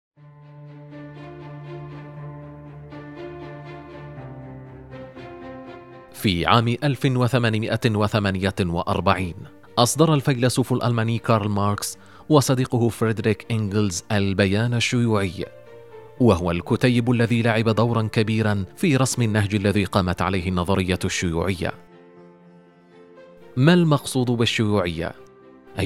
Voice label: وثائقي; الشيوعية كارل ماركس

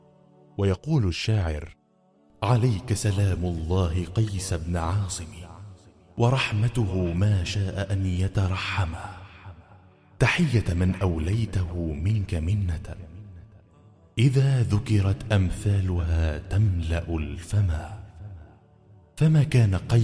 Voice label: شعر رثاء

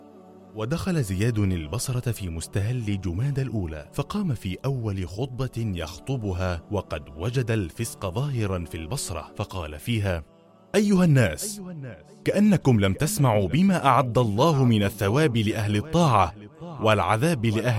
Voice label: تمثيل لدور خطيب

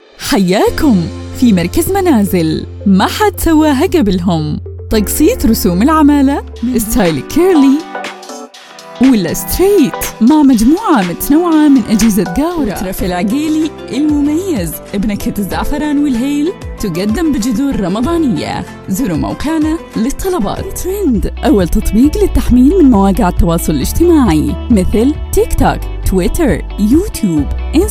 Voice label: اللهجة الخليجية

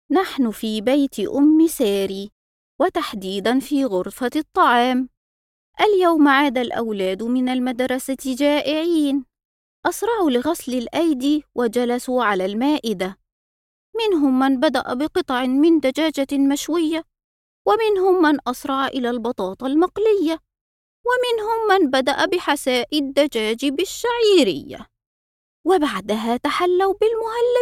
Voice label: اطفال

